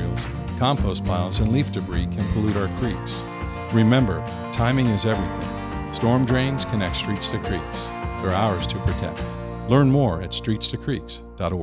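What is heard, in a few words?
runoff voice over only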